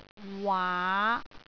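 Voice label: "waa5", Cantonese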